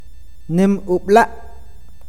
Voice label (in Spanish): "lago", awakateko